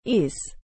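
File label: "ease", English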